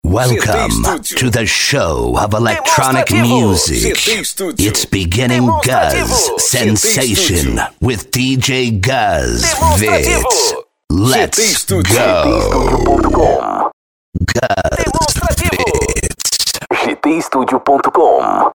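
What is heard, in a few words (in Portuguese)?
CV SKID Impacto Inglês, Voz Impacto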